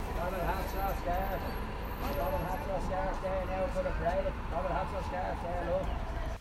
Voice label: Street Seller Call; intercardiff